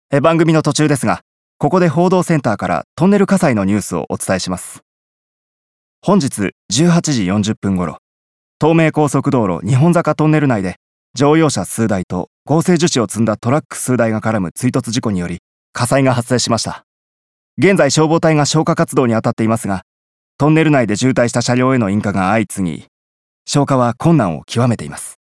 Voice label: ニュース

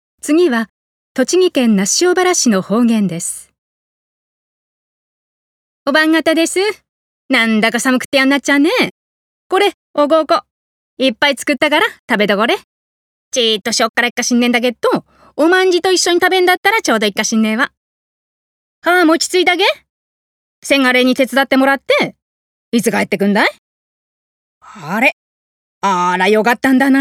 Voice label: 那須塩原方言

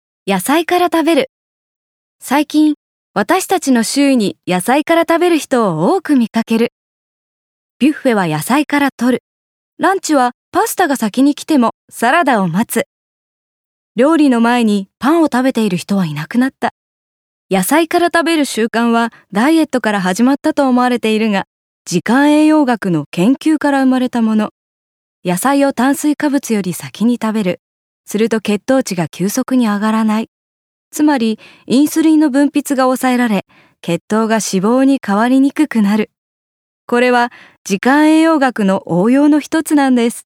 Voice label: 解説ナレ

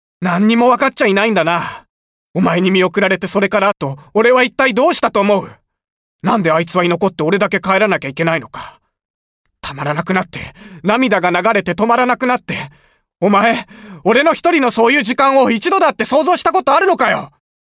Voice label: 怒り、悲しみ

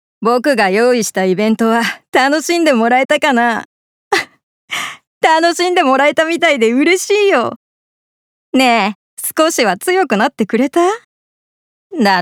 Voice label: 少年

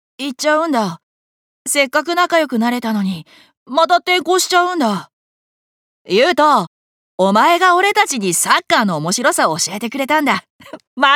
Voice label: 少年

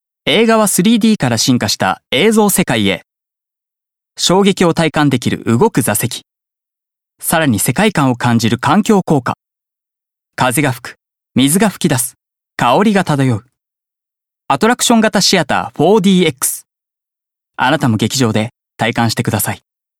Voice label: 映画館CM

